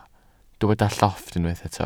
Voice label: "llofft", welsh

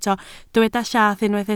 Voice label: "llath", Welsh

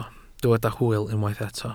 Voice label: "hwyl", Welsh

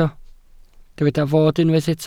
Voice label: "fod", Welsh

